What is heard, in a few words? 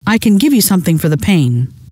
some for pain, scientist female